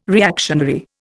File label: "reactionary", Indian